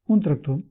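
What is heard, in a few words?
tractor, Catalan; tuxpaint-stamps; vehicles; farming; cartoon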